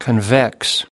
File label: "convex", us